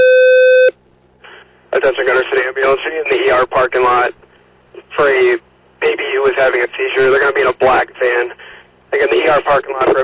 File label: Ambulance, dispatch